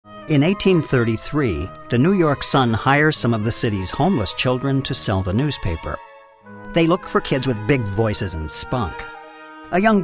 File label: Narration